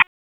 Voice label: Police Southeast District Tac; Raleigh; wake

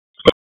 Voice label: Police Northeast District Tac 1, p25; wake p25

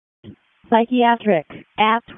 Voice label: EMS ALERT

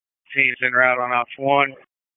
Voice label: FIRE OPS, p25; wake

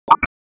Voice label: Raleigh Police Southwest District Tac, p25; wake

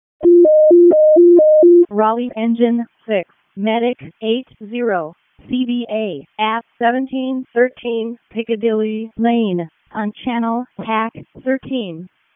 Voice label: WC EMS ALERT, p25; wake

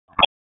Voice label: Police Northwest District Tac 1; wake; p25

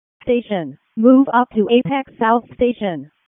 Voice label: EMS ALERT, p25

